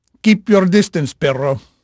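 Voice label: Spanish male, voice; Pirates Of The Caribbean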